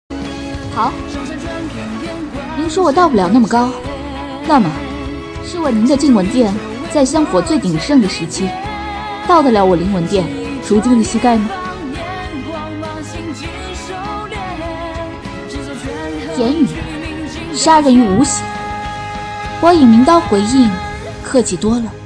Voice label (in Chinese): 动画配音